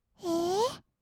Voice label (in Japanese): "えー", kawaii voice; ASMR; 色々なワンショット